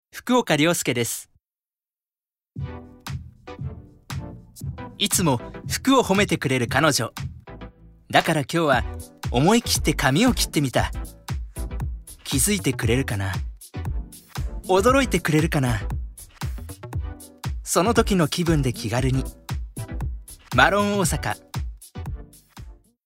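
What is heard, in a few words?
commercial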